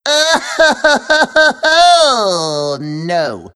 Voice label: "Stupid", Worms speechbanks; Timbersaw